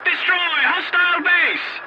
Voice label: voice message attack enemy base, english